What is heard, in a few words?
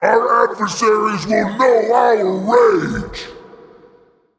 Male Titan Trigger